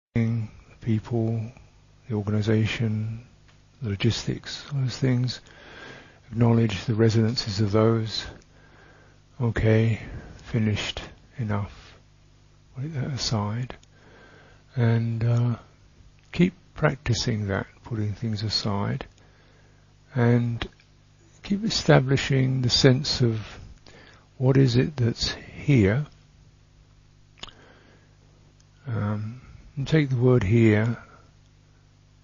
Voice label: Guided Meditation; Awakened Repose; Beatenberg